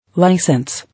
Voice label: "License", English